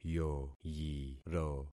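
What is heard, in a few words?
"yoyiro", concatenated syllables; Affixing experiment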